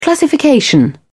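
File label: "classification", English, uk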